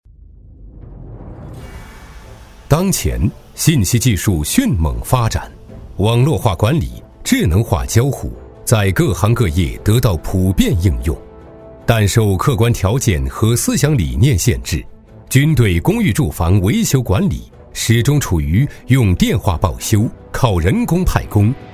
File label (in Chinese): 男 部队汇报片 军队严肃; 中英双语 大气浑厚; 强军之路